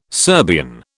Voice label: "Serbian", British